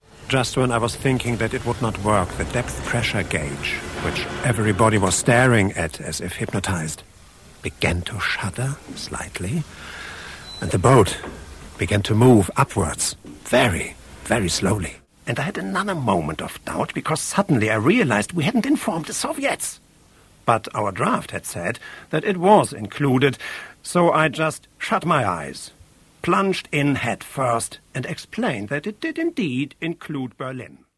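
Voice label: German English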